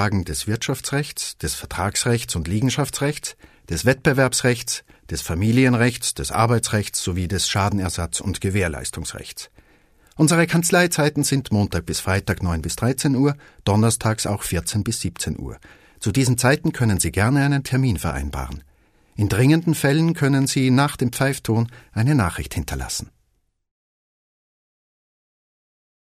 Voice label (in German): anrufbeantworter